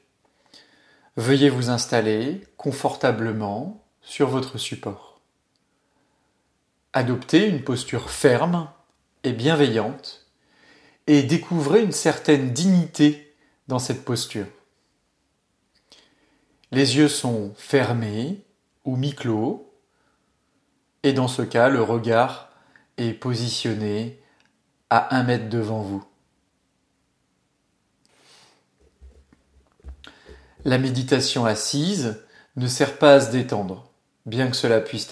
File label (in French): meditation sans objet